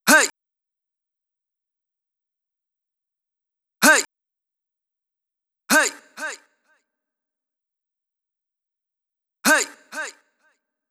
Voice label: Vox "Hey", Drum Loop 128BPM; VEC5 Drumloops; VEC5 Multi Loops; Loop Elements